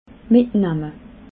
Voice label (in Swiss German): "mitnamme", alsace